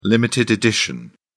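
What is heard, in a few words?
"limited edition", British English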